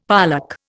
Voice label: "pollack", Indian; Dictionary